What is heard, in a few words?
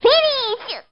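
"finish", Japanese; n64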